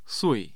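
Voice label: "sui4", Mandarin Chinese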